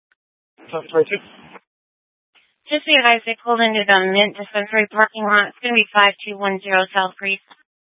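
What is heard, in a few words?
Tempe police department, scanner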